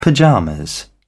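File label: "pyjamas", British English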